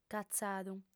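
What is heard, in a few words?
"catzadu", Sardinian, female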